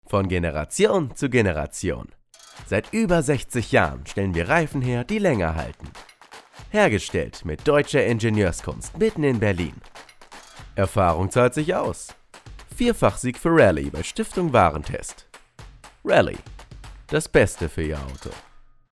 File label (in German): Werbung